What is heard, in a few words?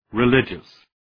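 "religious", English word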